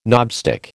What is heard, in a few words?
"knobstick", American